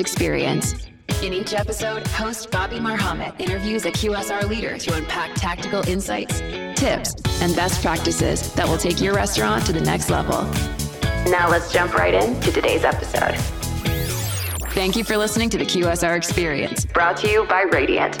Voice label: Podcast